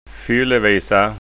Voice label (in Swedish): "fyllevisa", skanska ord